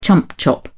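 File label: "chump chop", British English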